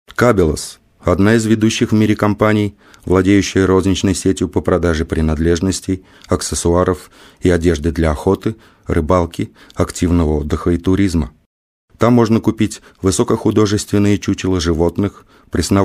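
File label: russian male narration; voice demos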